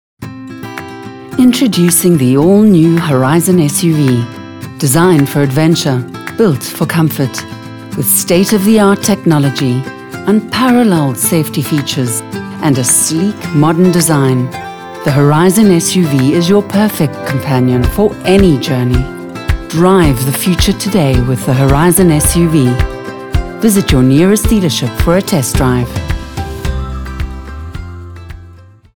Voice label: Corporate; Horizon SUV; South Africa

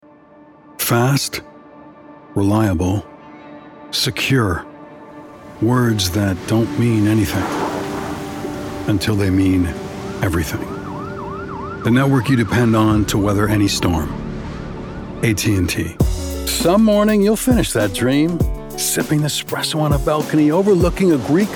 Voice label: Commercial US

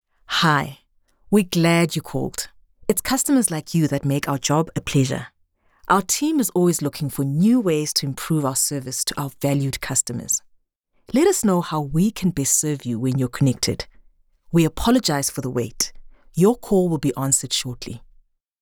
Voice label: English IVR

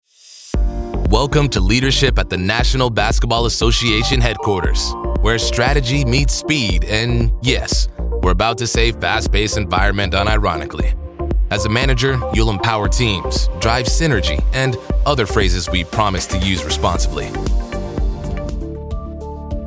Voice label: E-Learning

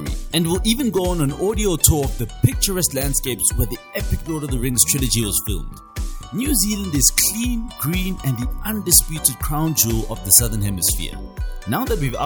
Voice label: Travel Guide VO

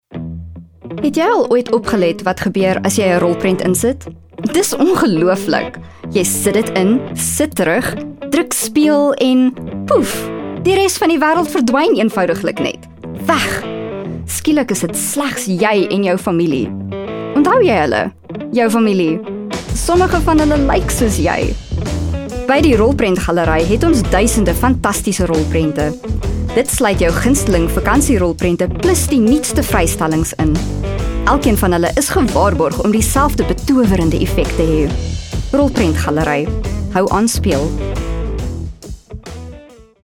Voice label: Afrikaans

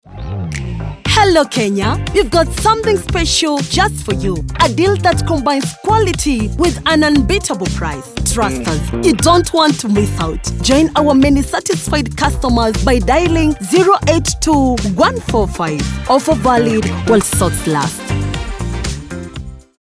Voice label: KENYAN FEMALE

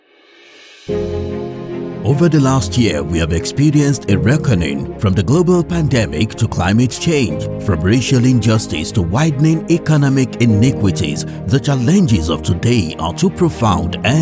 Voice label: Narration

